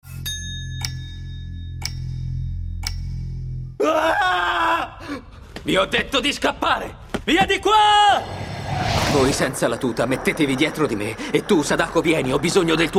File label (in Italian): cartone animato; gantz